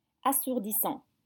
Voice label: "assourdissant", French